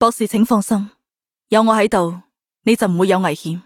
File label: Cantonese; Hoshiguma